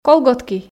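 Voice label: "колготки", Komi, female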